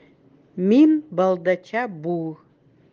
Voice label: Край эвенский язык

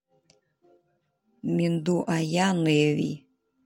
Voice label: ОРОЧСКИЙ У меня хорошо идут дела; Минду ая неиви.

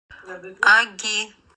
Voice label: "Аги", эвенкийский; Тайга

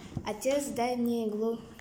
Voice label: Отец дай мне иглу русский

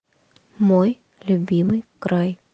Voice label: Край, русский язык

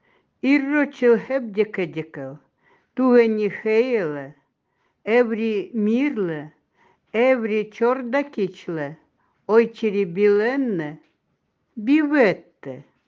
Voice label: февраль эвенский

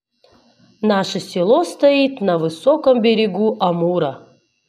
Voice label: предложение на русском

